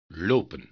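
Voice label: "lopen", Dutch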